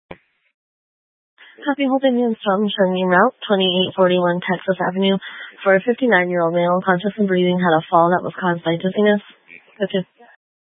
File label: Fire Dispatch